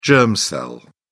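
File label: "germ cell", British English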